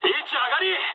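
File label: player killed enemy mood high, speech japanese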